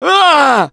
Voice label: Hurt Critical, russian; Gambler